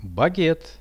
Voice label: "baget", Russian; багет; Это багет.; products